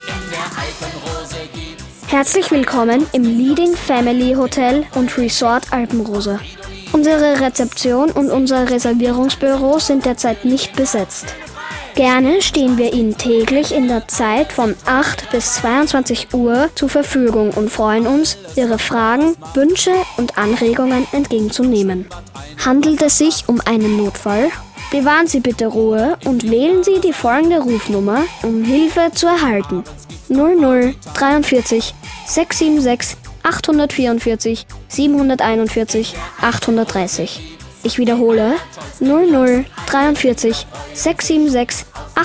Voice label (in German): Sprecherdemo Kind